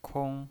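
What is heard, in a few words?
"kong", first tone, pinyin voice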